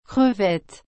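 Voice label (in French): "crevette", French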